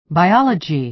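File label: "biology", American English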